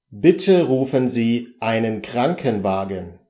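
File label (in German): bitte rufen krankenwagen, German; beim arzt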